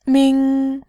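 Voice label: "ming", Mandarin, tone 1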